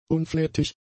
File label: "unflätig", German; adjectives